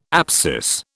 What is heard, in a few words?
"apsis", British